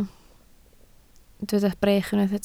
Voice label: "brech", Welsh